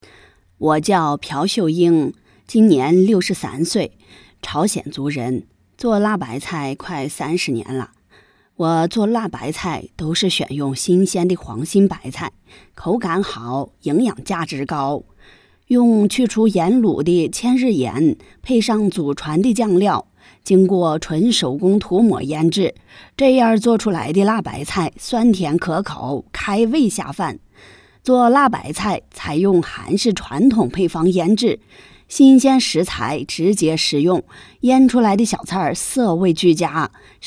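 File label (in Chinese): 东北 女 东北方言 样音 女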